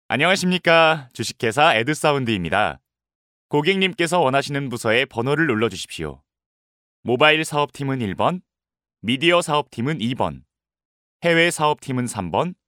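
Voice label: Korean male; ARS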